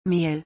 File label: Flour in German